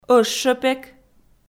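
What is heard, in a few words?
"ӧщепек", Komi, female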